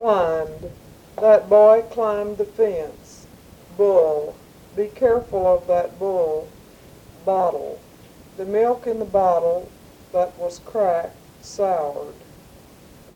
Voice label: Personal history and reading by 49 year old white female Texas; american english dialect